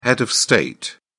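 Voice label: "head of state", British English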